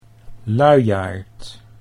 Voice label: "luiaard", Dutch